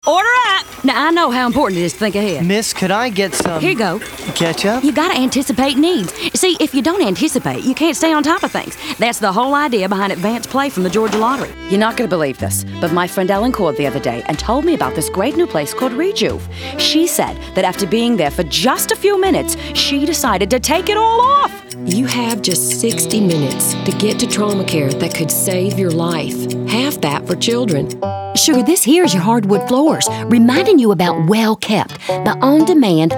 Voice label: Character